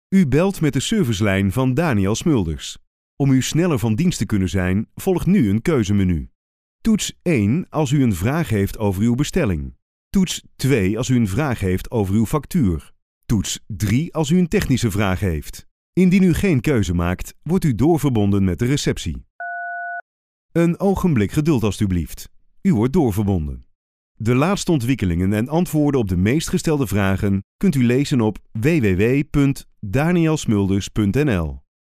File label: IVR